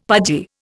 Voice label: "pudgy", Indian; Dictionary